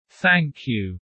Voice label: low; VR classroom Test